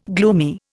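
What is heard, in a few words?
"glop", Indian; Dictionary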